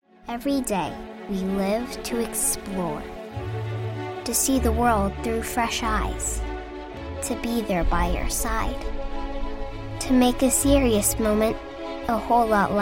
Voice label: VO Narration